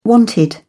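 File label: "wanted", English, British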